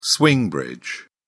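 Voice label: "swing bridge", British English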